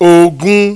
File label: "ogun", Yoruba, mid high tone; Nine Possible Combinations; Initial Mid tone combinations